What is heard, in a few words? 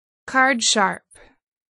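"cardsharp", English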